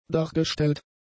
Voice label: "dargestellt", German; adjectives

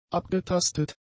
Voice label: "abgetastet", German; adjectives

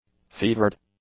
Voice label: "fevered", english; adjectives

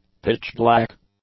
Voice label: "pitch-black", english; adjectives

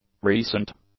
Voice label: "recent", English; adjectives

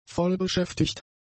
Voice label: "vollbeschäftigt", German; adjectives

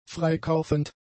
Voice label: "freikaufend", German; adjectives

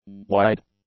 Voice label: "wide", english; adjectives

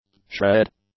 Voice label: "shred", English; nouns

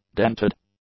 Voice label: "dented", english; adjectives

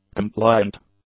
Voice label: "compliant", English; adjectives

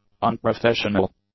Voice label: "unprofessional", english; adjectives

